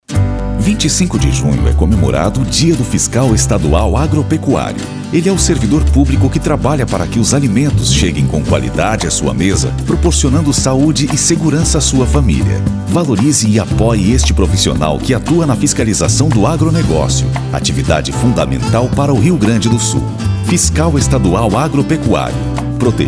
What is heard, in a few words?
SPOT; AFAGRO